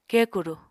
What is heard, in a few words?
"kekuru", Apatani